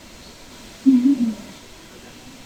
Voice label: Female Voice whos in here